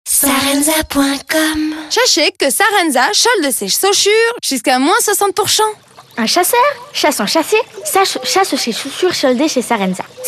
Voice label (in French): radio pub spot